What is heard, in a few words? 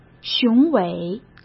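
"雄伟", Mandarin Chinese; hsk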